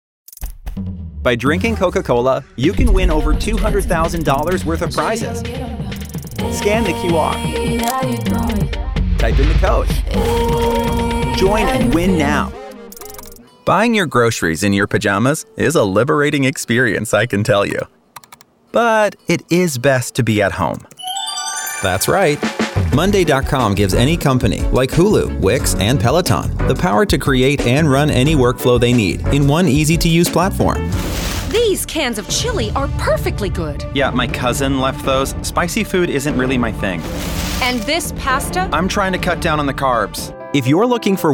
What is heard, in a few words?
commercial